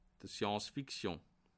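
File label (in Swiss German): "science", Bas Rhin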